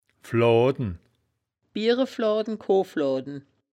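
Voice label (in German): "floaden", wittlicher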